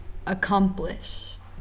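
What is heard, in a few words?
"accomplish", USA, female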